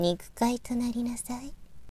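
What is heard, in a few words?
girl, "nikukaito"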